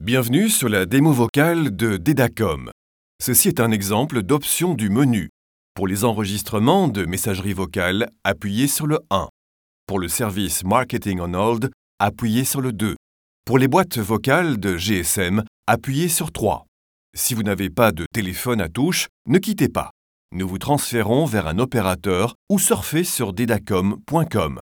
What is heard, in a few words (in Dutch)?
Voice over Frans man